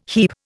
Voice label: "heap", Indian